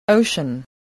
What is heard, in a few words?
"ocean", voice